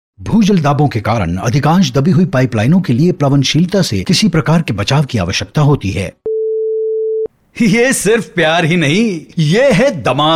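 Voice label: Hindi, General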